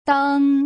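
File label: "deng", Mandarin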